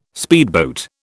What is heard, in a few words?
"speedboat", British